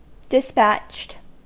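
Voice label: "dispatched", American English; pronunciations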